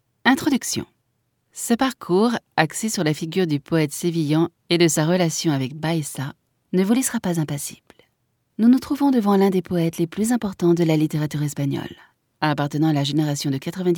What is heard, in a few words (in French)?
audioguia, frances; audioguia baeza